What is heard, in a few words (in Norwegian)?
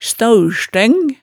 "staaurstøng", hemsedalsmaalet